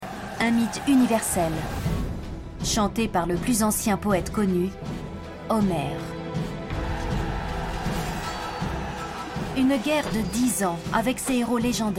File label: NARRATION; La guerre de Troie a bien eu lieu